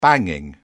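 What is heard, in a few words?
"banging", British English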